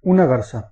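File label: magpie, Catalan; animals; birds; tuxpaint-stamps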